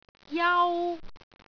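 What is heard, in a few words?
"jau1", Cantonese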